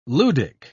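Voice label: "ludic", British English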